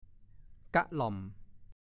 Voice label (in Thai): "ก้ะหล่อม", ภาษาโคราช; ที่เก็บข้าวเปลือกสานด้วยไม้ไผ่ใช้ขี้ควายทาให้หนา